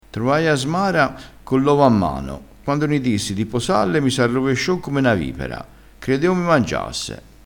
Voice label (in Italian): "arrovesciassi", crespinese